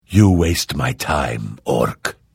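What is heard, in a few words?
Hello, Vo; Morrowind GOTY GOG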